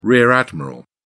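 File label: "rear admiral", British English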